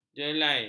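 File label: "jelai", Malay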